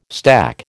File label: "stack", American